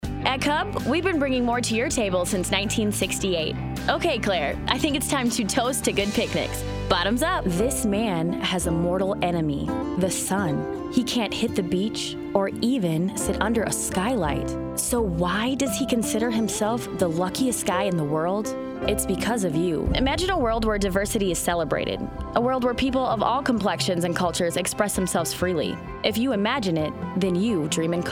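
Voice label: Commercial VO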